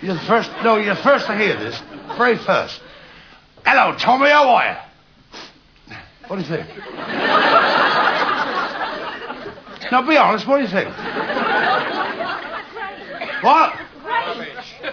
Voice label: impression

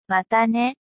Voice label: "Mata Ne" Goodbye

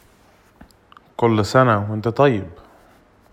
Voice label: Kol Sana HBday Male

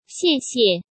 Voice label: China Thankyou

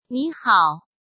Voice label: "Ni Hao" Chinese

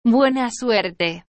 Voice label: good luck buena suerte, Argentina

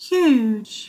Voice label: "huge", English

